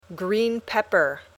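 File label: "green pepper", English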